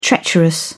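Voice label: "treacherous", British English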